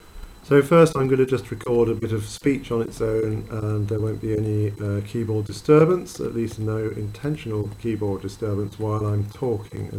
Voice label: voice only post pro